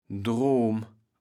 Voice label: "Droom", kaevels platt

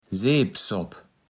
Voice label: "Seepsopp", platt

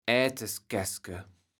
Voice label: "Äteskaeske", kaevels platt

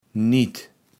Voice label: "nit", kaevels platt

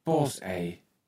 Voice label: "Poßeij", Platt

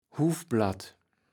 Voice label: "Huffblatt", kaevels platt

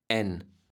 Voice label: "än", kaevels platt

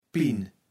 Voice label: "Pinn", kaevels platt

